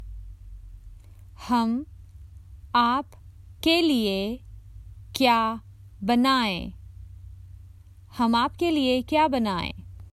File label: What shall we make for you, Common Hindi Phrases for travel